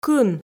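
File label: "кын", Komi, female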